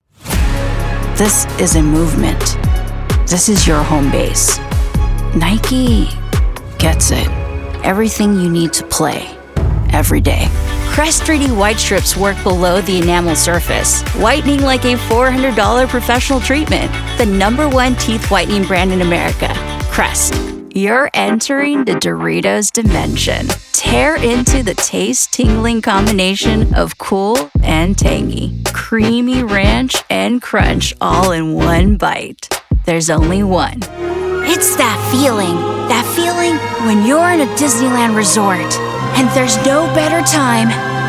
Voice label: Commercial